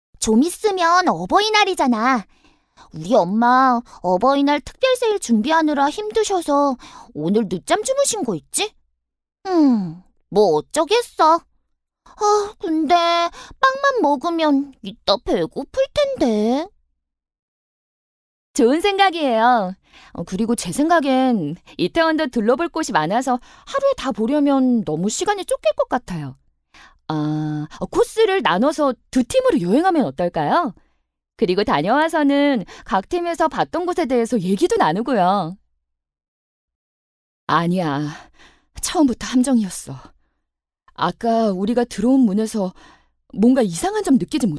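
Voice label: Korean, female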